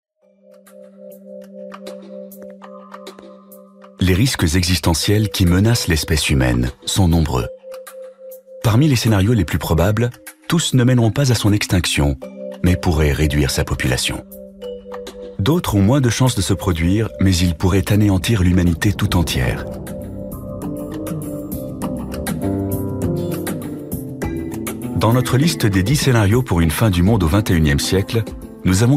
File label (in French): Narration; les derniers jours de l'homme